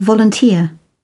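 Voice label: "volunteer", British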